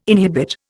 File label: "inhibit", Indian